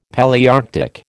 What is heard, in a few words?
"Palaearctic", American